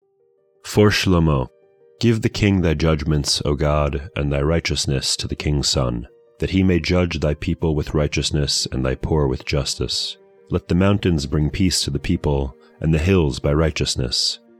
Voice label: poetry and prayer psalm english; Meir Soloveichik